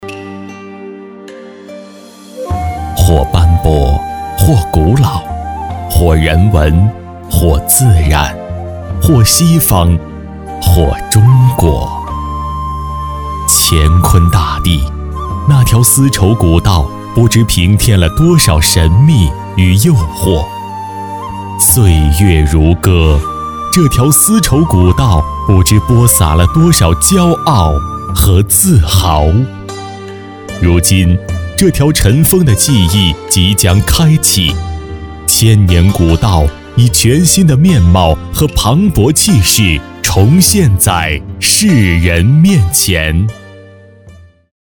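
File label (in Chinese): 国语 男声 专题（悠扬 大气） 大气浑厚磁性 沉稳; 江西南昌市丝绸之路项目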